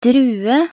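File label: "drue", Norwegian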